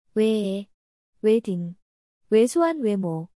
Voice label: speech "weh", korean

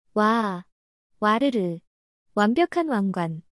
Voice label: speech "wa", korean

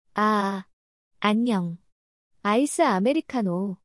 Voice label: speech a, korean

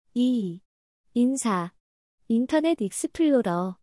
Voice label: speech i, korean

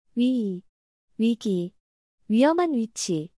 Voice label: speech "wi", korean

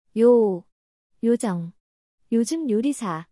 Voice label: speech "yo", korean

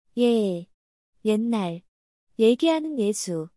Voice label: "yeh" Korean speech

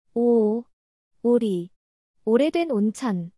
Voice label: speech "wo", korean

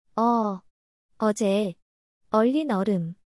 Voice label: speech "e", korean

